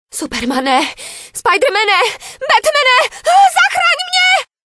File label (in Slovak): sms hlásky cz